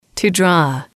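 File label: "to draw", English, female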